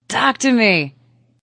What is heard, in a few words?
Woman Says Talk To Me; Woman talking